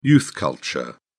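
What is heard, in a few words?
"youth culture", British English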